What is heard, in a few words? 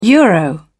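"euro", British English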